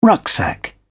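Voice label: "rucksack", English, British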